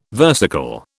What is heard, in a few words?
"versicle", British